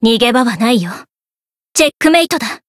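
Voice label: Voice limit break, Japanese; isuka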